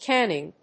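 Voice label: "canning", English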